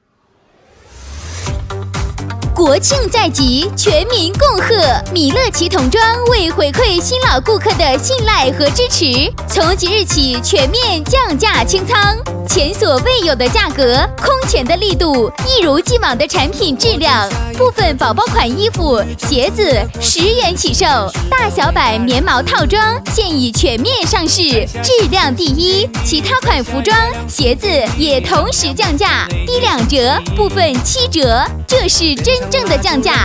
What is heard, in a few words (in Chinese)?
女 年轻甜美, 广告促销 童装 配乐; 米乐奇童装; 国语女声配音